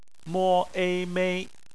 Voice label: "mei", Chinese; phonetics